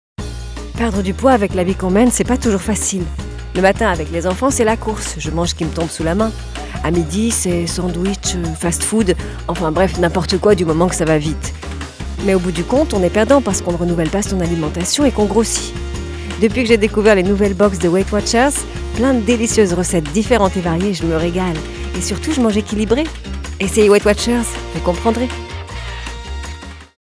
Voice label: Naturel; Weight Watchers

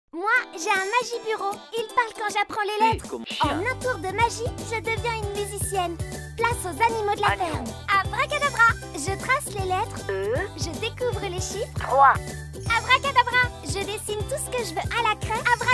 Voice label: voix off enfant; vtech magibureau

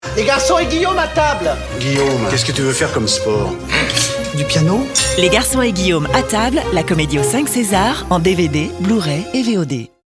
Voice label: souriante; DVD Guillaume Galienne

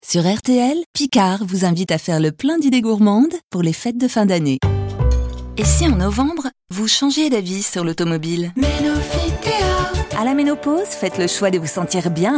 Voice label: pub souriante dynamique; RTL Medley Operations Speciales

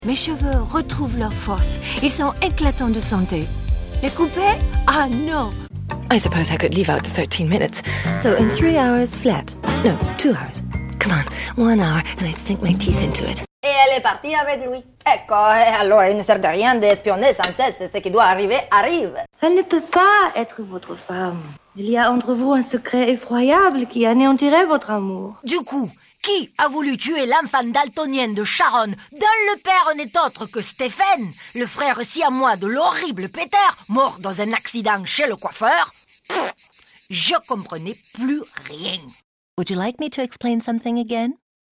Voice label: medley accents

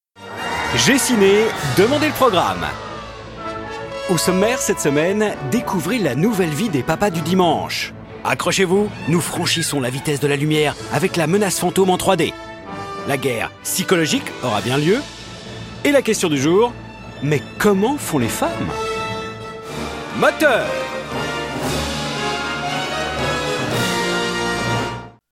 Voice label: Voix Off Ciné Mag ciné destiné aux enfants et à la famille

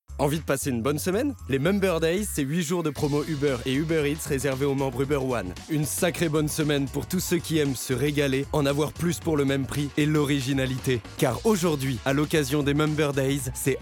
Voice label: Spot Radio; Uber Eats

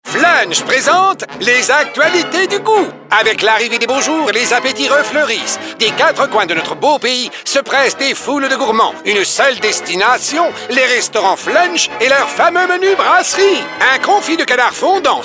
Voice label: Voix off; Flunch

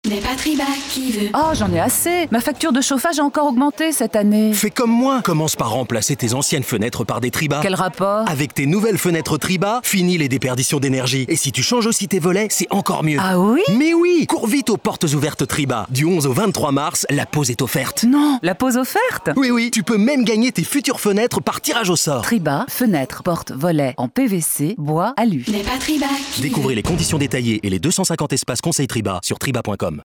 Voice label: Spot; Tryba

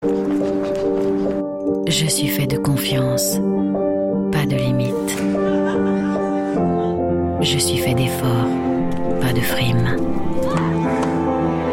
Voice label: Spot TV; ASICS

